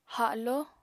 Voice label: "haló", apatani

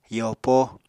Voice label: "yopo", Apatani; Household Items

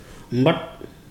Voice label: "porridge", Ibibio

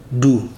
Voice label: "live", Ibibio

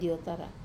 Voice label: "otara", to boil, Wamesa

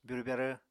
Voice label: "byurw-byarw", Apatani

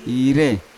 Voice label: "ire" nail, Yawa

